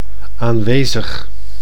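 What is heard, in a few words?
"aanwezig", Dutch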